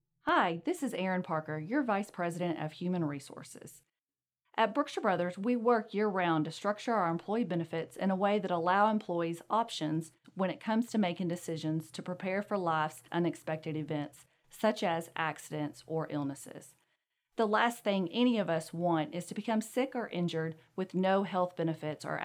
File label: Open Enrollment Message; team member open enrollment 2024; Brookshire Brothers, as in the past, has partnered with Fidelity Enrollment Services to give our employees the best enrollment experience in